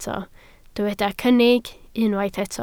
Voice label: "cynnig", Welsh